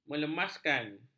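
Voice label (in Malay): "melemaskan", Malay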